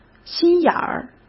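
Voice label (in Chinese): "心眼儿", Mandarin Chinese; hsk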